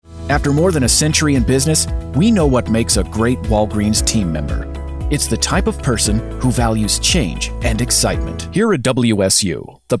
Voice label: corporate